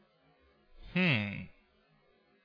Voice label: Old guy line